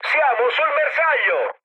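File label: Italian near waypoint mood med, speech; War Thunder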